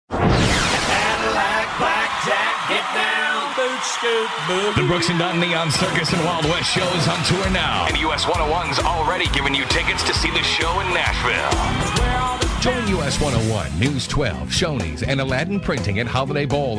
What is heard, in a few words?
commercials